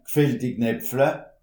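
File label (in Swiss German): "gfillti", Alsatian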